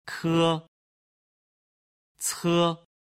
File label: "kece", Chinese